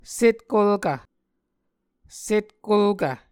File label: "setkolkv", Muscogee